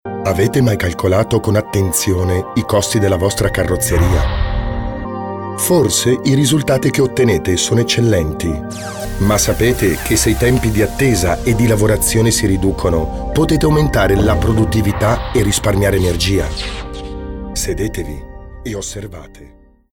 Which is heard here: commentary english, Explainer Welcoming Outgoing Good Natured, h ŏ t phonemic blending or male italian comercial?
male italian comercial